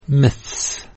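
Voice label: "Myths", English plural; pronunciation of plural s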